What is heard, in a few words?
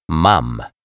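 "mam", Polish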